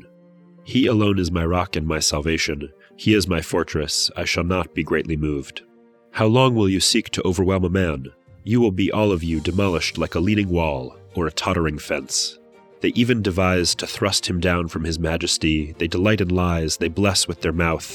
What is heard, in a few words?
poetry and prayer psalm english